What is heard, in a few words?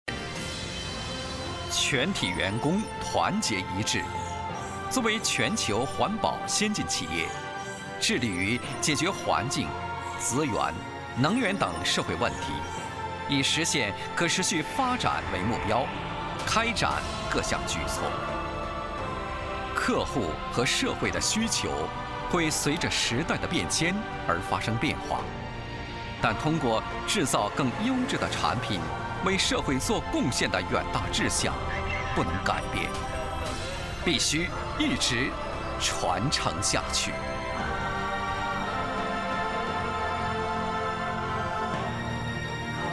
foreigner; ストレート